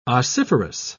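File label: "ossiferous", British English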